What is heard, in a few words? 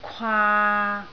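"kwaa3", Cantonese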